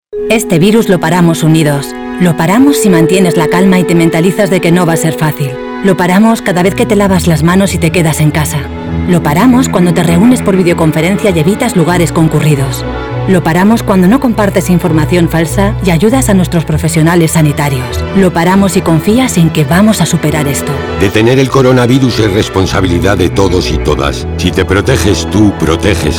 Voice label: radio; CORONAVIRUS